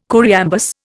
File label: "choriambus", Indian